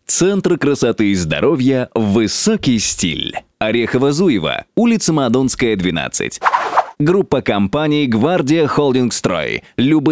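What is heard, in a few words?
Russian, voices; audioreklama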